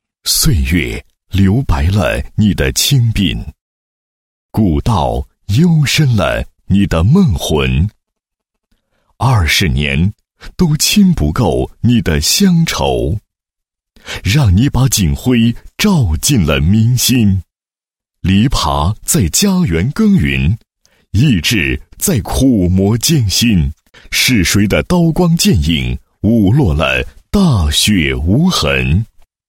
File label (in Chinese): 男 颁奖 深情诗歌 颁奖词 男; 兴安颁奖词